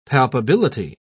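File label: "palpability", British English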